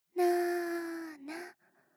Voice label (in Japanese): ゆっくりカウント, ASMR